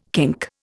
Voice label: "kink", Indian

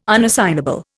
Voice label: "unassignable", Indian

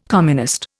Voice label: "communist", Indian

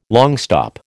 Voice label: "longstop", American

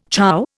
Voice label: "chow", Indian

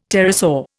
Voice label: "pterosaur", Indian; Dictionary

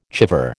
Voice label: "chèvre", American

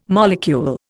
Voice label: "molecule", Indian

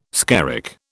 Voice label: "skerrick", British

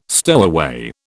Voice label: "stowaway", British

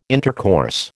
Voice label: "intercourse", American

